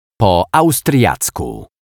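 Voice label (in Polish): "po austriacku", Polish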